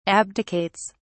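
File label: "abdicates", British English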